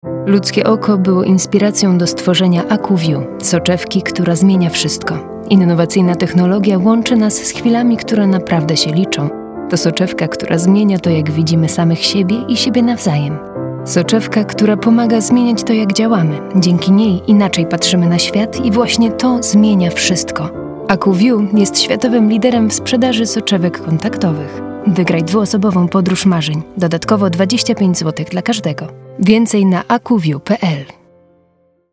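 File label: Polish, Commercial; GoLocalise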